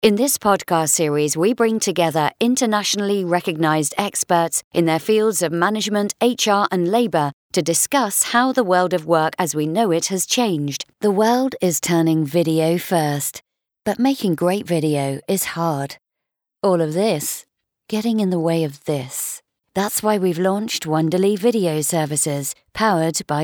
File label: Corporate